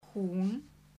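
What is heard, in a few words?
"hún", Icelandic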